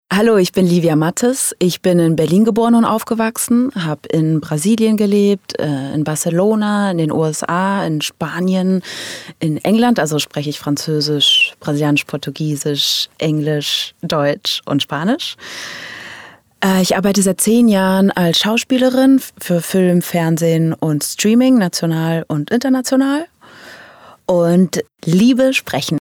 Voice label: Vorstellung DE

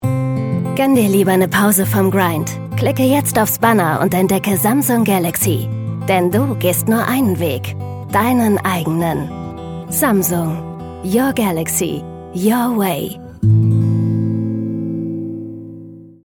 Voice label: Werbung, Commercial (Werbung), German; Samsung

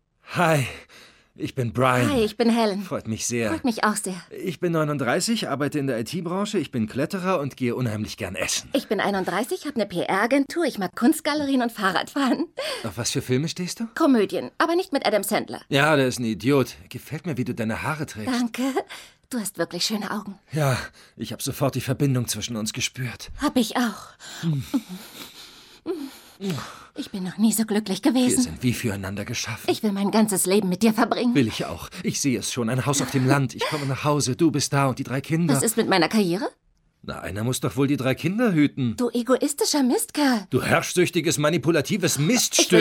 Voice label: Hoerspiel Speeddating

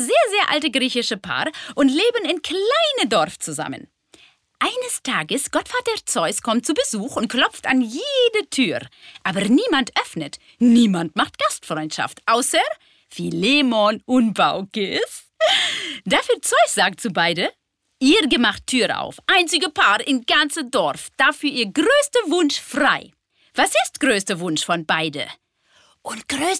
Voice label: Frau Akzent; Frau Olga

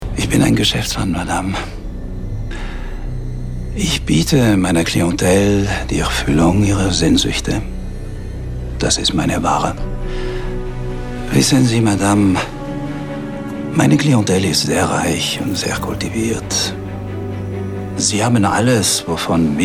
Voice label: Französischer Akzent Belgischer Mädchenhändler; LOFT VOICES

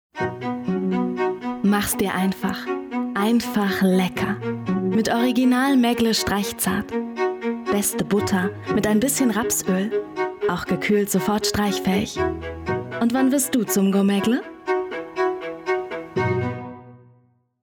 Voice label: Werbung Butter MUSIK

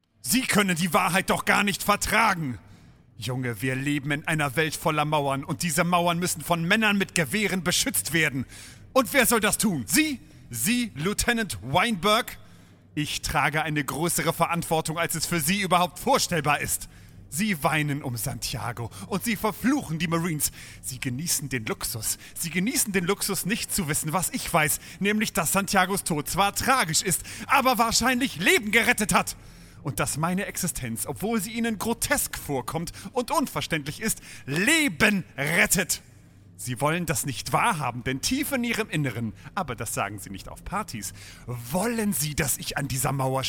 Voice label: Sprachprobe Monolog; Eine Frage Der Ehre; LOFT VOICES

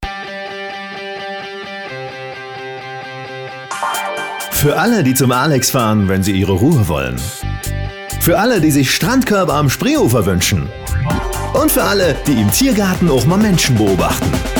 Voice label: Funkspot; Club Cola

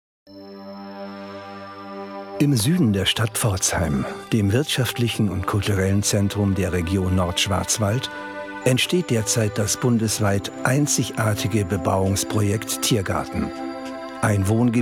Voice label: trailer; tiergarten pforzheim

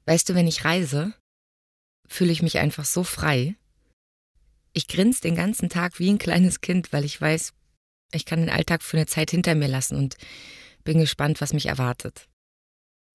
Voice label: Umgangssprache; wenn ich reise

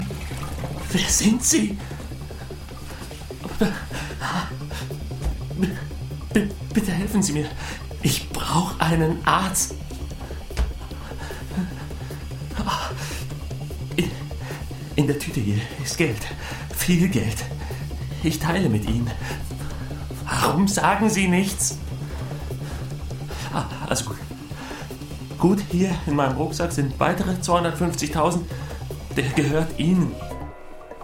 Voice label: hoerspiel tod; LOFT VOICES

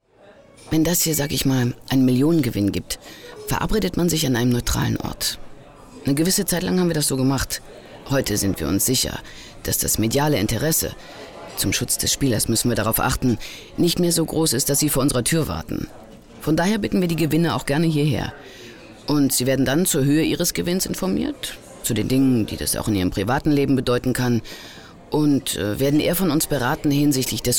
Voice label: oton pressesprecherin

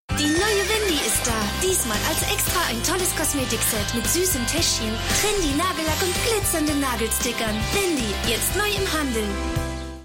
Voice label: tv spot kinderwerbung; wendymagazin

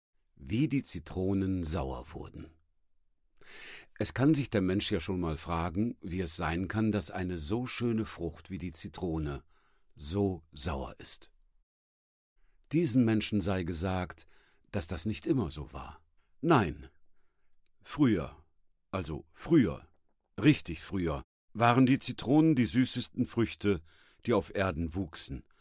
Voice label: hörbuch; wie die zitronen sauer wurden

